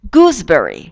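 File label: "goosebery", English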